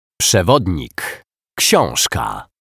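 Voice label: "przewodnik ksiazka", Polish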